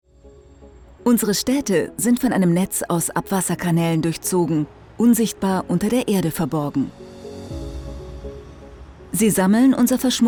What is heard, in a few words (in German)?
Imagefilm informativ; Kanalbaustelle